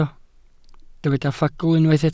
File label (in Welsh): "ffagl" Welsh